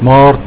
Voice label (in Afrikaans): "maart", Afrikaans